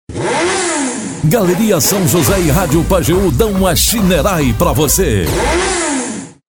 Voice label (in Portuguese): VINHETA; PROMOÇÃO GALERIA SÃO JOSÉ E RÁDIO PAJEÚ; shineray